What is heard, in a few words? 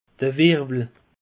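"wirwel", Alsatian; peche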